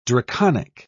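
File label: "draconic", British English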